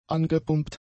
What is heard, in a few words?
"angepumpt", German; adjectives